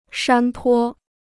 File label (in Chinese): "山坡", Chinese